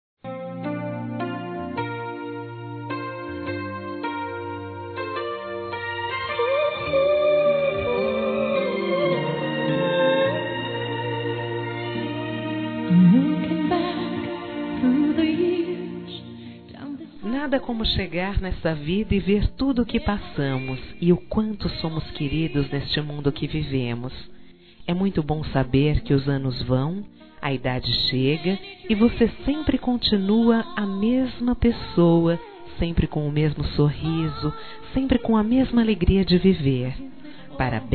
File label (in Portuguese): NEUTRO (F)